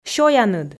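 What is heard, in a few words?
"сёяныд", Komi, female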